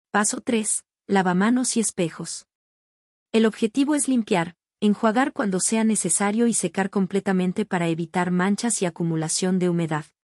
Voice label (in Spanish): locucion